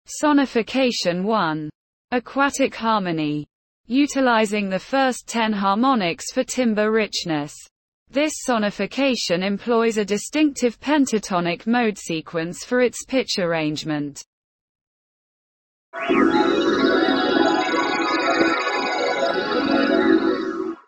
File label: sonification aquatic chord audio description EN